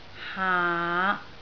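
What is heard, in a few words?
"haa5", Cantonese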